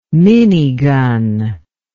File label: "minigun", English